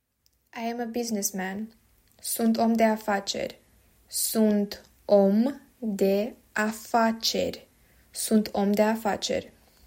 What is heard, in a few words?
I am a businessman, romanian